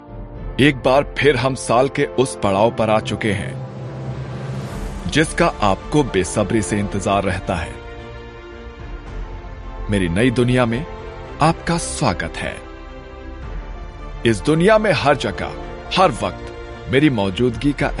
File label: TV promo, Voice-Over; BiggBoss